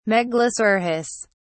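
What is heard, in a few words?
"megalosaurus", British English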